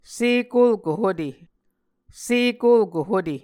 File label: "sekolko hute", Muscogee